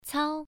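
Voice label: "cao" Mandarin, first tone, pronunciation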